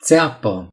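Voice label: "spike", Romanian; gcompris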